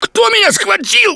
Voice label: grabbed by smoker, russian, Survivor Voice; Left 4 Dead 2; Gambler